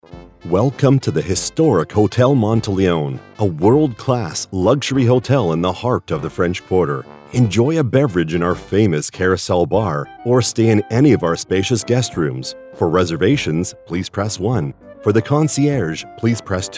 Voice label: IVR Telephony, Luxury; The Hotel Monteleone

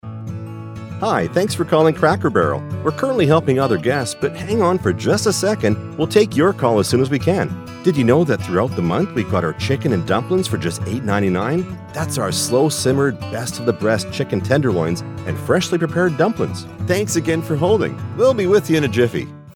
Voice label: IVR Telephony, Friendly Approchable; Cracker Barrel

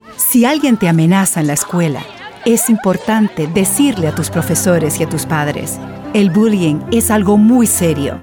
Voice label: Bullying PSA; Spanish - Commercial

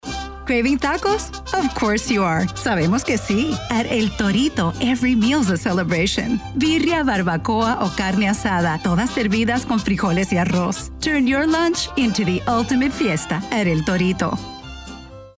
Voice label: Spanglish spot; El Torito

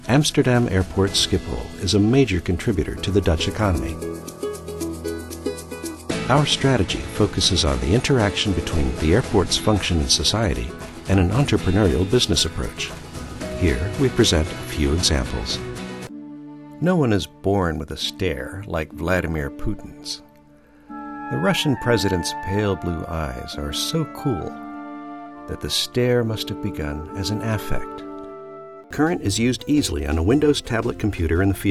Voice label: Narration

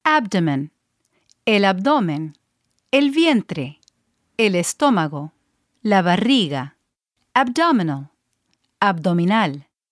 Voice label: Spanish Medical Dictionary

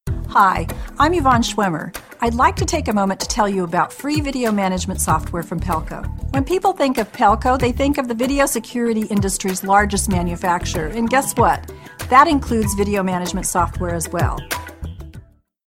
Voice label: Software - Inviting

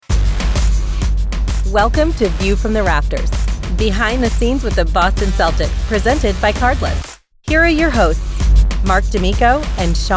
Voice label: Sports Podcast

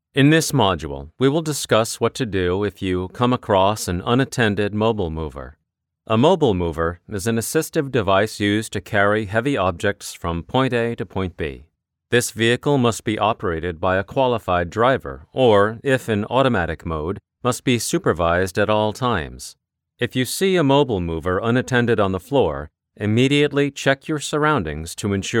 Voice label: elearn clear direct; Mobile Mover

